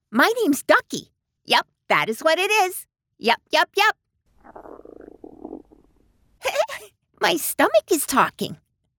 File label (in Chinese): 外籍女 Cartoon 卡通人物模仿